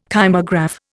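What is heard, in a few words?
"kymograph", Indian English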